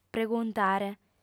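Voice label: "preguntare", female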